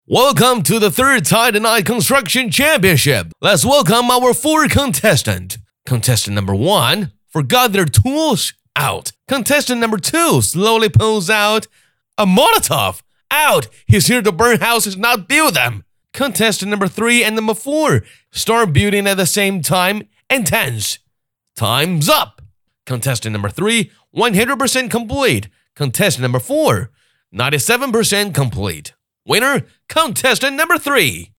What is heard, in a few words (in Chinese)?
男 英文 信息流